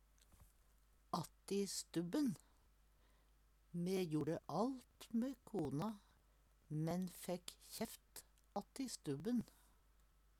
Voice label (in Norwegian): "atti stubben", Numedalsmål